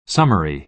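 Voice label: "summary", American English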